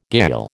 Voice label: "gayal", American